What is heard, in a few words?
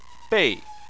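"bei" Chinese, fourth tone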